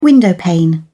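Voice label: "windowpane", British English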